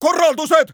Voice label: neutral